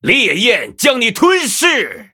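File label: Male, Chinese; Blood Elf; Fireball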